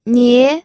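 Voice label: "nie", second tone, pinyin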